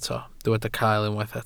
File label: "cael", Welsh